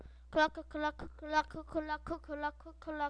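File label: "clock", spoken word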